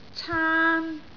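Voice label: "caan1", Cantonese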